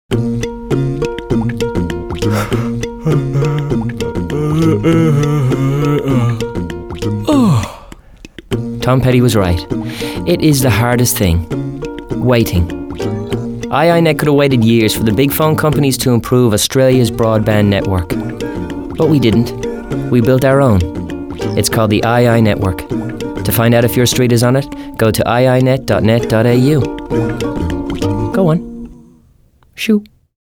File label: radio spot